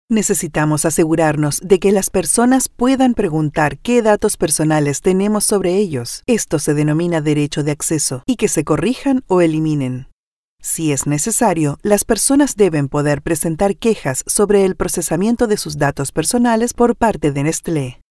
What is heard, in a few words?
spanish latin american corporate videos